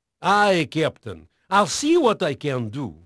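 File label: Portuguese male, voice; Pirates Of The Caribbean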